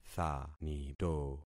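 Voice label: "thanito", concatenated syllables; Affixing experiment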